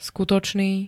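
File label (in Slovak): "skutočný", Slovak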